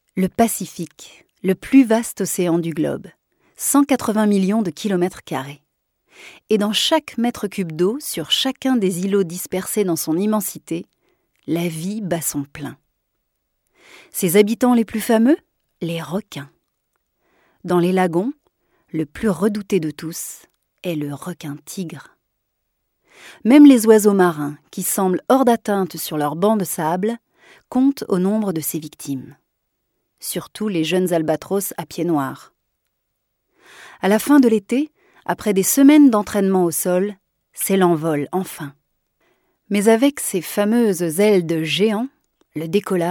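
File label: French female documentary; Français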